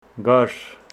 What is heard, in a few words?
Homshetsi there was